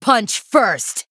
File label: Female Human Attack